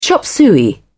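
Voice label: "chop suey", British English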